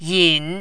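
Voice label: "yin3", mandarin, dipping tone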